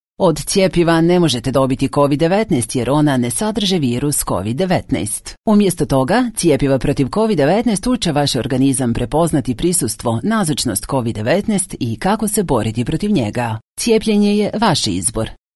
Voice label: Croatian Informative Vaccine Covid, Medical Narrations, Female Voice